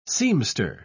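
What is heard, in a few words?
"seamster", British English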